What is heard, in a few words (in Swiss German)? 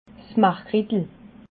"margrittla", alsace